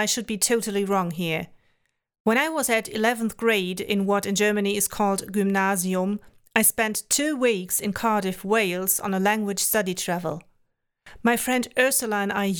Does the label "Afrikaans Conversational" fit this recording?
No